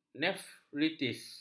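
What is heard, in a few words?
"nefritis", Malay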